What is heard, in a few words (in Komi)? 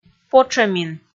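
"потшӧмин" Komi, female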